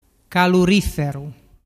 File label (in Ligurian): "caluriferu", dialettu